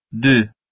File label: "dy" Albanian